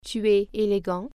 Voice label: you are handsome male, french